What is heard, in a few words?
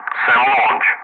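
"sam launch", English; Ka-50; Wingman Messages; DCS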